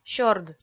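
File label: "сёрд", Komi, female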